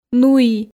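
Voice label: "нуи", Komi, female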